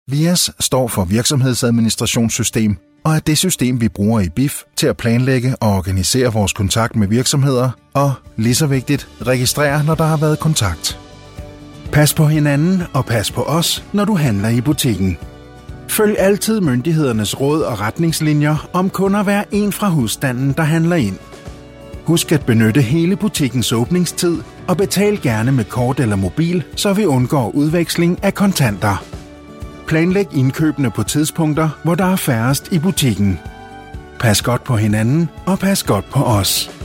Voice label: Danish male, Cool Warm Engaging Voice; demoreel 2025

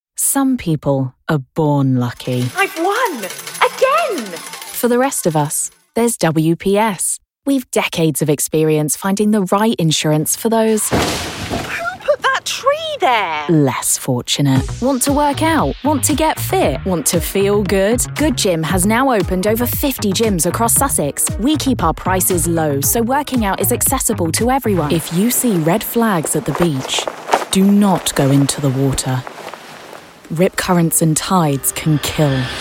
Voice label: commercial, British Bright Voiceover

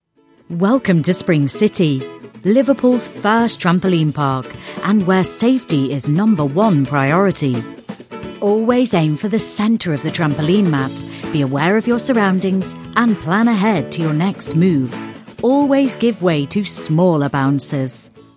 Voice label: explainer

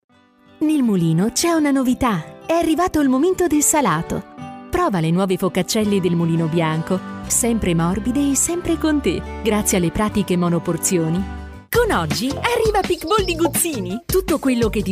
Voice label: italian voiceover commercial

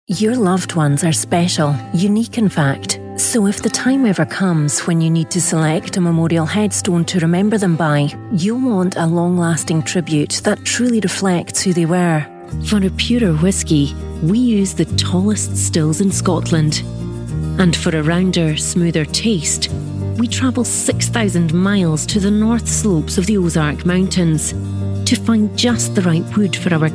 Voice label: commercial

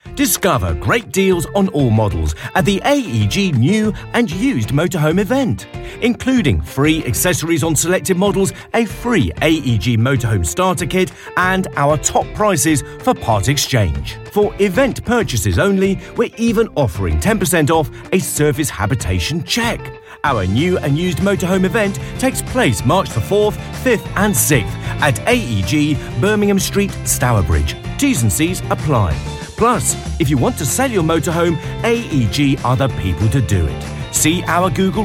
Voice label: radio commercial upbeat

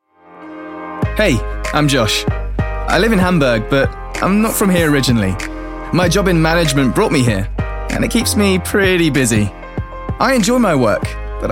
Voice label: natural

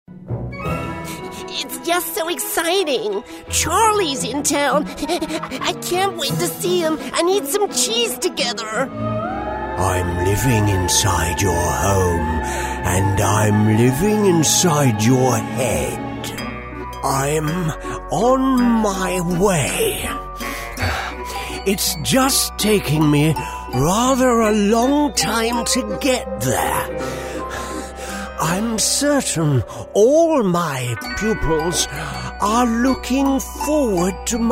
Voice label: animation, Charming Warm Male Voice

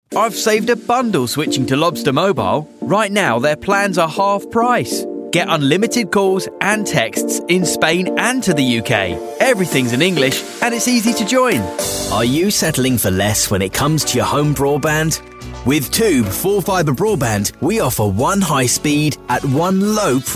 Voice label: natural

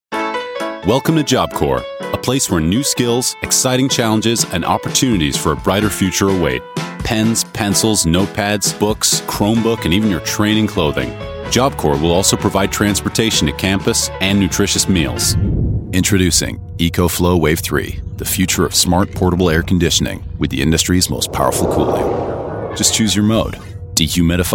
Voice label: British voiceover US accent montage

